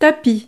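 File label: "tapis", French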